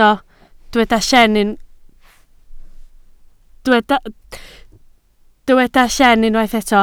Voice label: "lleen", Welsh